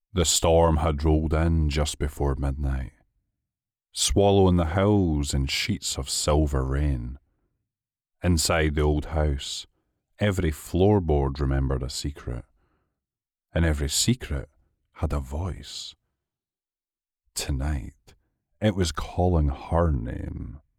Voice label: narrator, audiobook